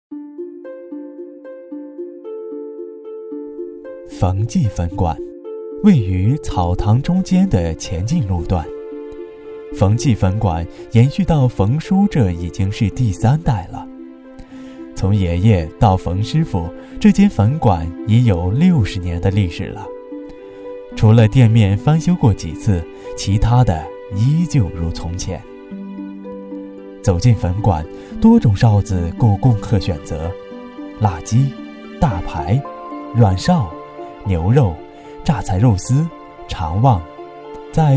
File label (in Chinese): 男声 舌尖; 冯记粉馆